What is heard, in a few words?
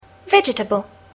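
"vegetable", English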